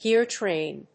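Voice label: "geartrain", English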